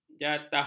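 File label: "jatah", Malay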